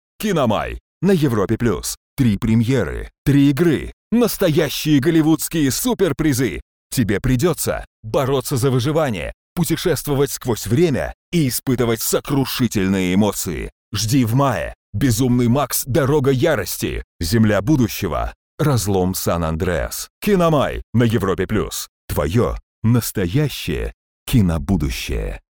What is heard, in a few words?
male russian voice over talent sales